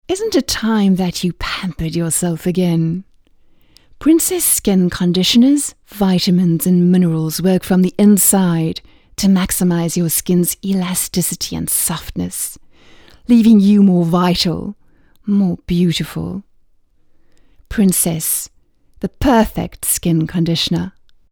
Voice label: soft sell